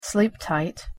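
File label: pronunciation "sleep tight", English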